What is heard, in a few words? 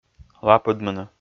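"лапыдмыны", Komi, male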